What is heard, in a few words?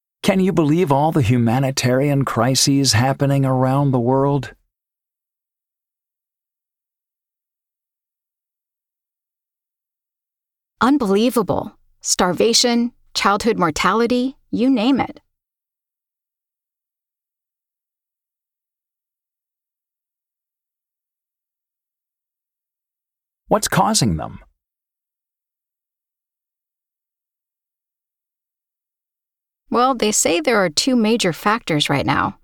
PRONUNCIATION PRACTICE; Connectivity 5